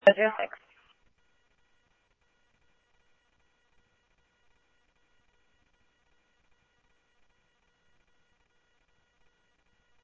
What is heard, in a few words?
Tempe Police, scanner